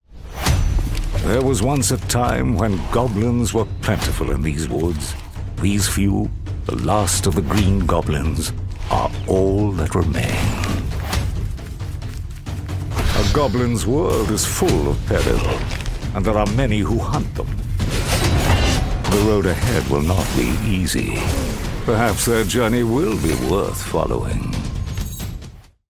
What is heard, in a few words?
english british video games